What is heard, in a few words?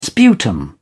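"sputum", English, British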